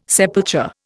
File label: "sepulture", Indian